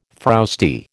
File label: "frowsty", American